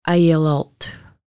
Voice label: Museum, Lushootseed